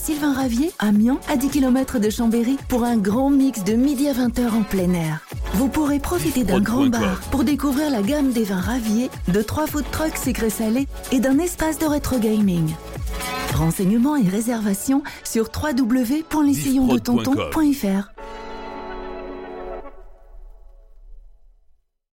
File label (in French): Spot VINYLES; Les sillons de tonton